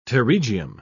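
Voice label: "pterygium", British English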